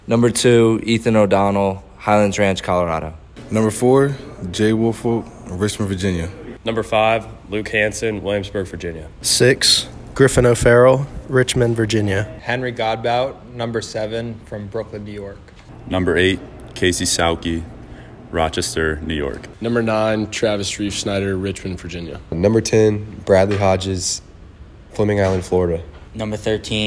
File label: baseball pronunciations; 2023 virginia baseball game notes